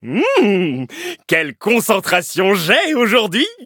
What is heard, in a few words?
levelup, fr; cookierunwiki